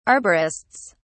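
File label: "arborists", British English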